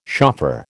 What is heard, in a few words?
"shofar", American